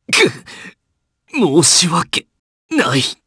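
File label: Vox Dead, Japanese; kingsraid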